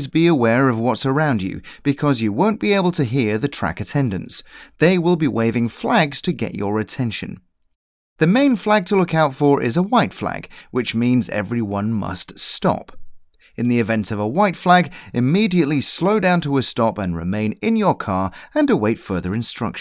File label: Safety video